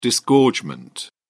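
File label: "disgorgement", British English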